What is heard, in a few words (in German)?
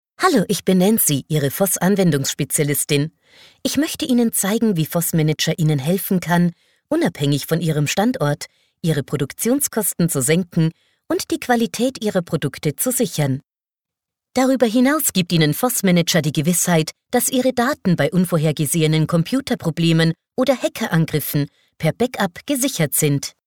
Produktfilm